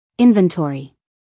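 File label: "inventory", American English, female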